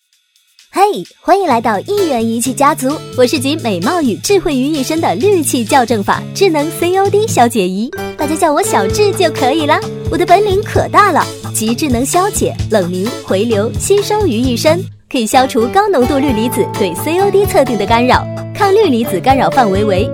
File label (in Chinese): 多媒体 产品演示 角色产品说明 环保; 益源环保